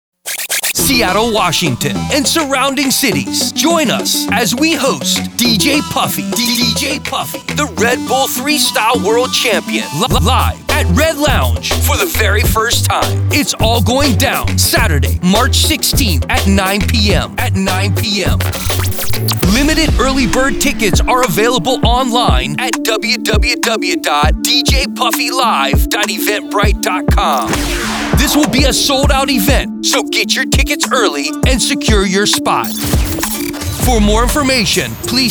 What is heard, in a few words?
Promo Ad